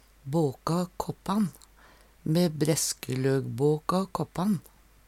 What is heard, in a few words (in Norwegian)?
"båkå kåppan", Numedalsmål; vaske/skure trekoppar; Me breskeløgbåka kåppan.; Hushald, mat, drikke